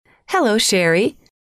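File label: hello, female; trainer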